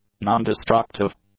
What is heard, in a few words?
"non-destructive", english; adjectives